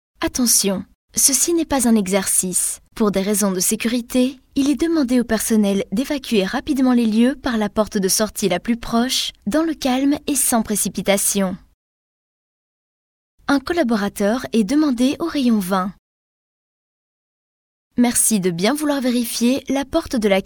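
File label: French, Messaging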